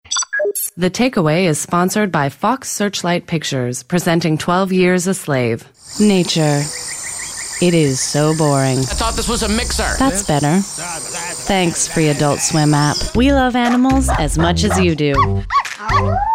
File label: Women Commercial